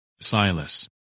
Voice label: "Silas", men names